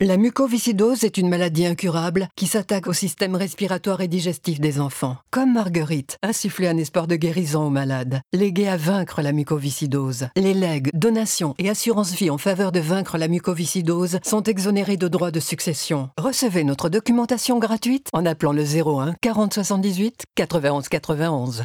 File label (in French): Pub Radio; Vaincre la mucoviscidose